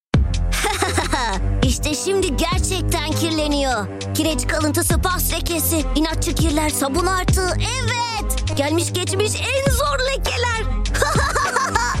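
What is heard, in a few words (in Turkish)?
ANIMASYON, Seslendirme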